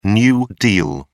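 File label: "new deal", British English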